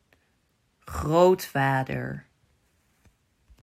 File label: "Grootvader", Dutch